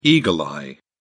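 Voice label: "eagle-eye", British English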